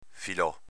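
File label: "filò", Venetian dialect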